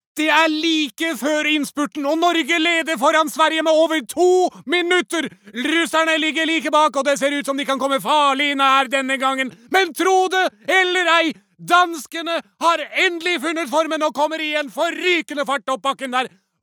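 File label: Norwegian dub commentator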